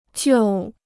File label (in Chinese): "救", Chinese